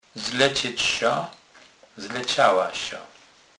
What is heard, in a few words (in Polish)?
"zlecec", kurpie